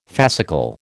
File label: "fascicle", American